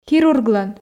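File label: "хирурглӧн", Komi, female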